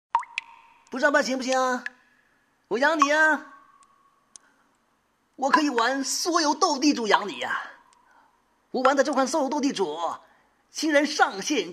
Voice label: 模仿小陕西; 周星驰

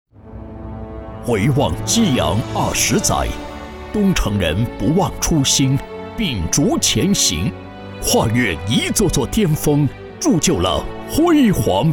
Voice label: 年会恢弘大气

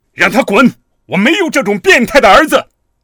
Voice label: 中年父亲样音

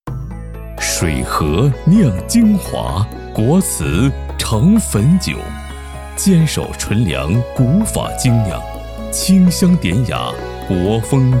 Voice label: 酒水广告; 国瓷盛汾酒

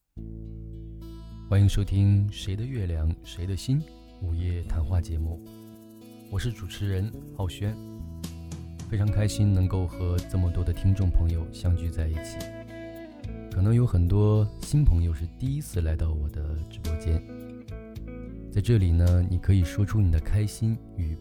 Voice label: 电台

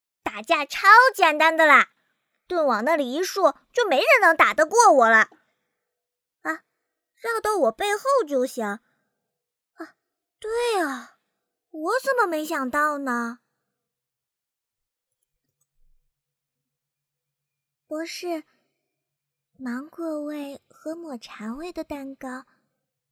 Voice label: 少萝声线展示

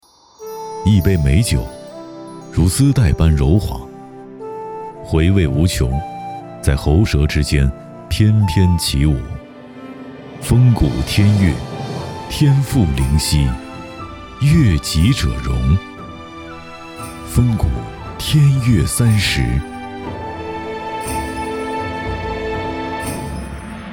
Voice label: 广告深沉品质; 丰谷酒

